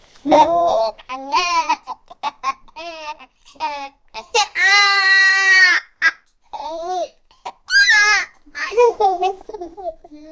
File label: 5 year old african, family